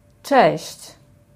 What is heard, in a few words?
polish hello